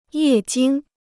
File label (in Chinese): "液晶", Chinese